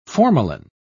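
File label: "formalin", English, British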